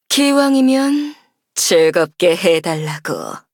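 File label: Ultimate, Korean; Sigrid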